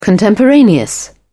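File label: "contemporaneous", British English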